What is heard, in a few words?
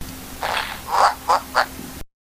King Dedede Wiimote Sound